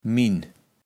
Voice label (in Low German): "min", kaevels platt